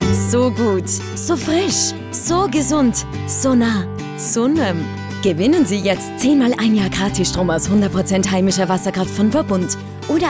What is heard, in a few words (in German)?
Lebendig, AT